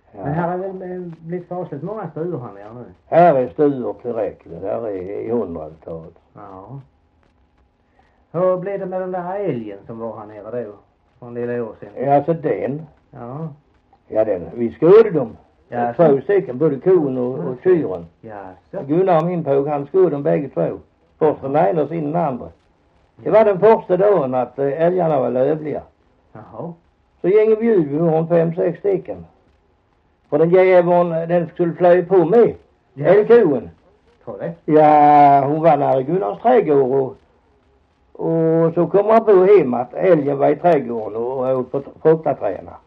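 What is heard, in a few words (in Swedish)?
Hasslöv Halland, Svenska sagor dialekter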